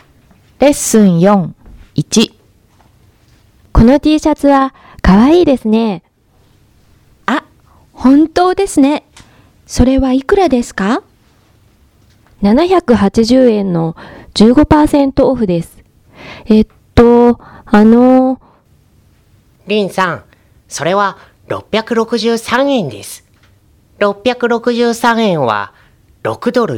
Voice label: Conversation; tobira